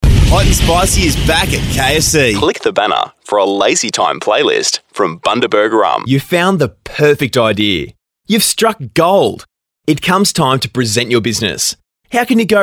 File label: male talent retail